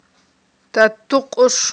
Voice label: "татукъуш", Aghul